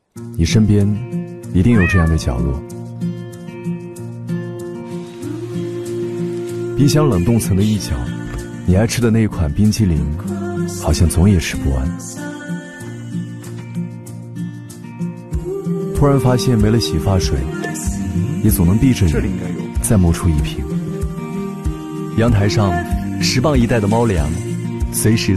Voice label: 旁白温柔理性京东超市

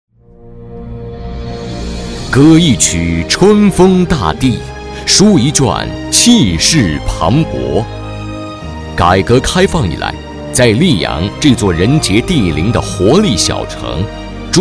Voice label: 专题 大气 激扬 沉稳; 电梯

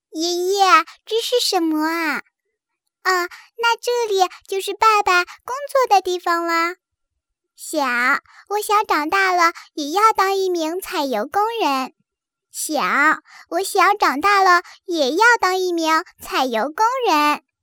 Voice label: 小女孩

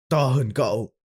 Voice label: 越南样音男

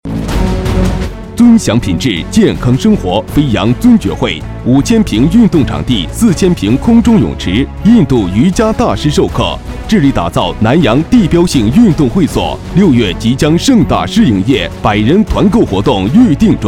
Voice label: 促销电台; 会所

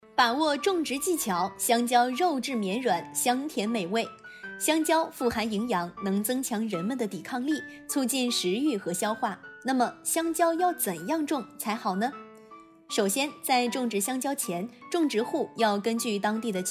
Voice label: 农技小知识, 栏目包装 普通话 青年（18-35）; 栏目包装配音

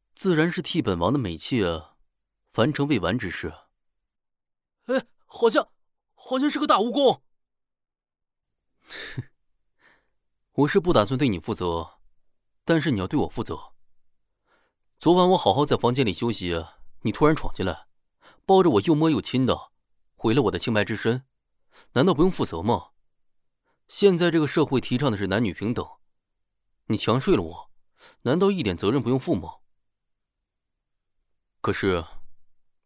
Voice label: 有声书角色声展

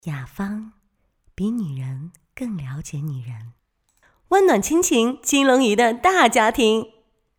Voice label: 广告语

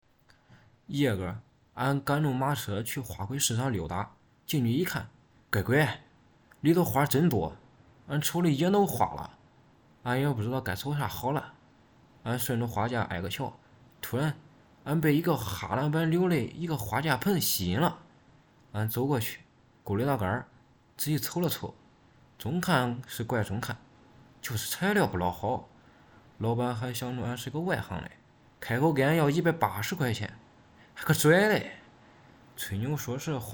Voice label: 河南方言; 买花盆架

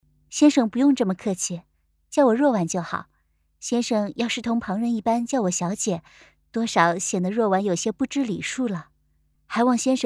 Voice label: 温柔大小姐音

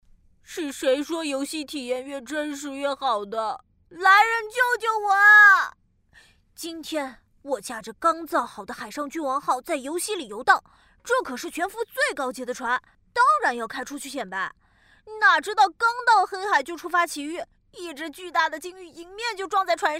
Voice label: 解说男童正太呆萌贱萌可爱; 君王号

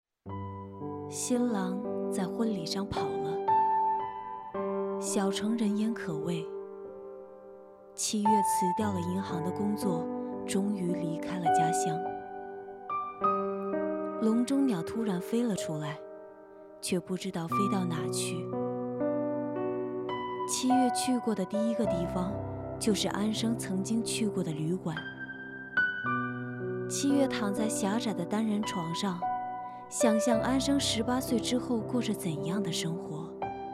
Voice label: 独白; 七月与安生

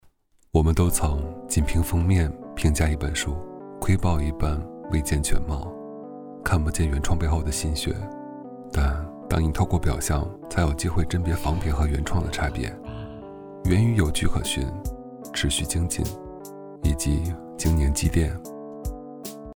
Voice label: 年轻

